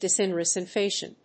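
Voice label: "de-synchronization", English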